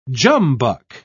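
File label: "jumbuck", British English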